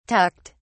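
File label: "tucked", British English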